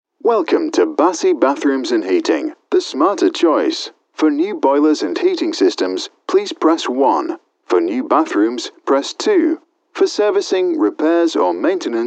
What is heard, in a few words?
telephone